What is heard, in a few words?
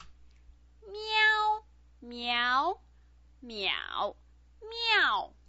"miao", Chinese pinyin